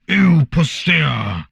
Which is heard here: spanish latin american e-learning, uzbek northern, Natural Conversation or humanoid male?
humanoid male